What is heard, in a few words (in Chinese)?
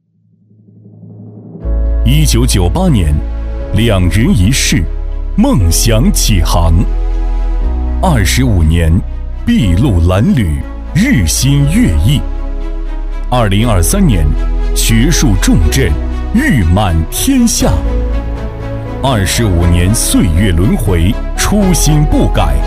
男 企业宣传 大气激昂; 激情有力; 大气浑厚; 25周年